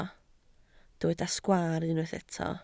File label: "sgwaar", Welsh